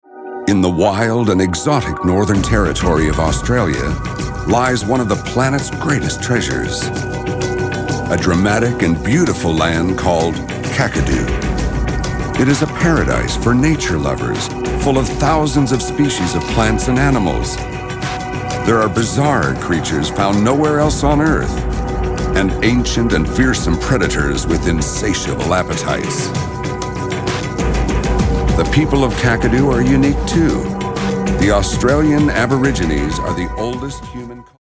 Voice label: US documentary; engelsk-us; mann